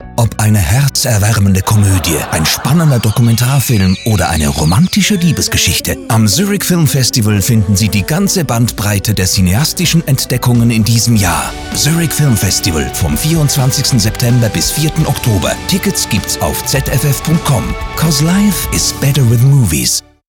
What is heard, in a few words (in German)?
Radiospot Sprecher Hoch-dt.; ZFF20